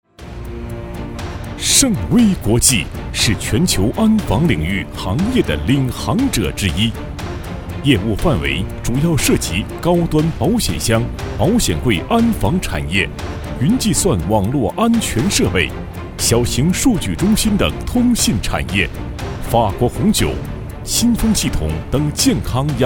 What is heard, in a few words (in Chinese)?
男22 安防公司（大气激情）